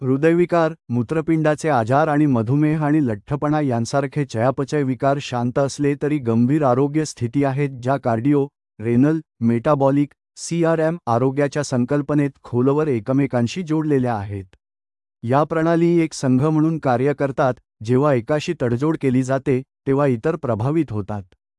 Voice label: Male Marathi

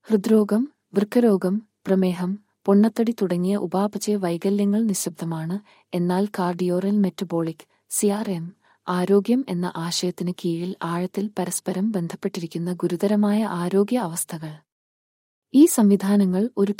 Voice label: Female Malyalam

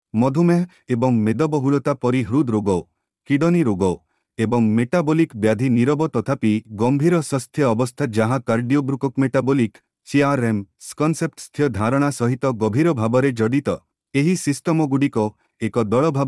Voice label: Male Odia

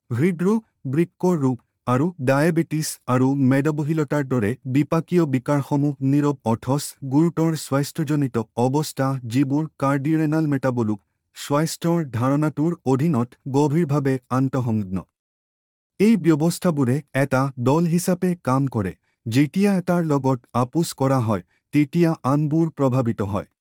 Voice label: video Male Assamese

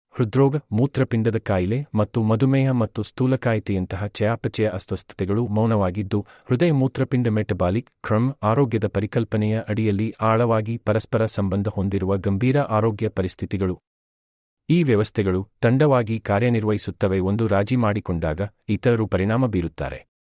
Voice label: video Male Kannada

